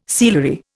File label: "sealery", Indian